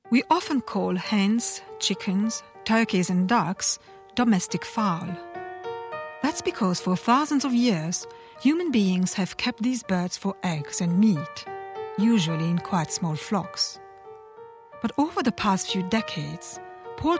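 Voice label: French English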